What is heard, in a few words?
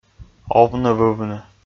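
"овны-вывны", Komi, male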